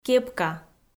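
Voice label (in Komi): "кепка", Komi, female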